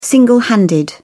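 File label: "single handed", British English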